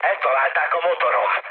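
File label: Hungarian damaged engine mood low, speech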